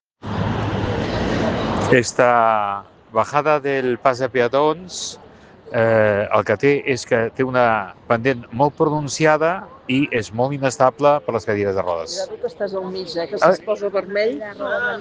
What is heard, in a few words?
Voice message